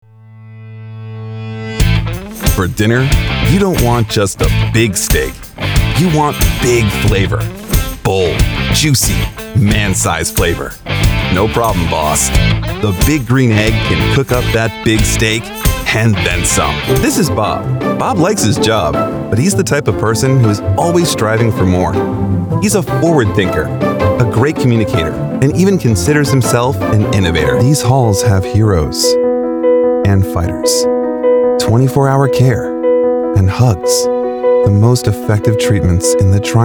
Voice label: commercial